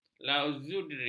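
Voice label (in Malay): "lazuardi", Malay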